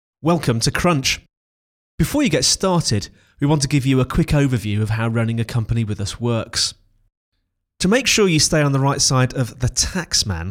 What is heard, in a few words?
voiceover